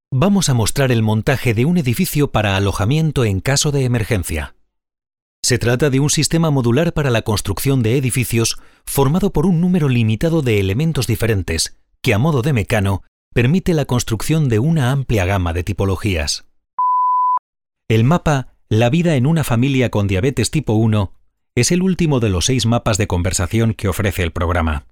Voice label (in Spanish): narración